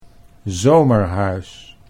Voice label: "zomerhuis", Dutch

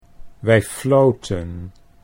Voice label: wij floten, Dutch

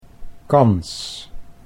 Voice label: "kans", Dutch

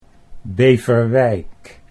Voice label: "Beverwijk", Dutch

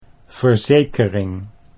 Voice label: "verzekering", Dutch

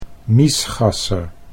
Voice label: "miesgasser", Dutch

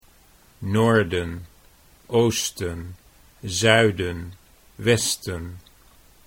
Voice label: "noorden oosten", Dutch

